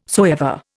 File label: "soever", Indian